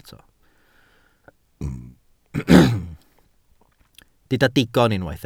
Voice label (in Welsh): "digon", Welsh